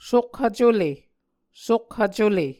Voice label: "sokhvcule", Muscogee

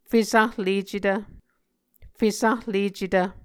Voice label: "fesahlecetv", muscogee

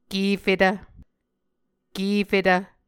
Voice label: "kefetv", Muscogee

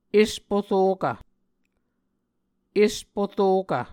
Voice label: "esporokv", Muscogee

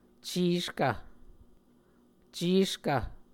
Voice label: "ceskv", muscogee

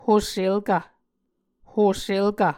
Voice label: "hoselkv", Muscogee

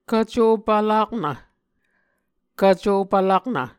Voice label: "kvco pvlaknv", Muscogee

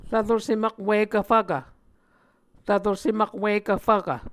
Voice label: "rvro sem akwikv fvkv", Muscogee

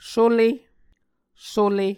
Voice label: "sule", Muscogee

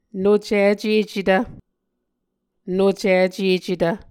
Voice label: "nocicecetv", Muscogee; Mvskoke Words with Voicing Consonants; nucicēcetv; Martin, Jack B., and Margaret McKane Mauldin. 2023. A Dictionary of Creek/Muskogee; SIL International

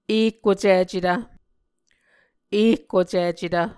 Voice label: "ekkocicetv", Muscogee; Mvskoke Words with Repeated Consonants; A Dictionary of Creek/Muskogee; SIL International

